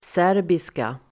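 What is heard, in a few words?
"serbiska", Swedish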